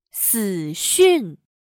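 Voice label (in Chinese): "死讯", Chinese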